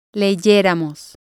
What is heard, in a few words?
"leyeramos", spanish